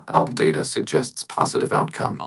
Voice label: happy, speech; fertig classic games; cybro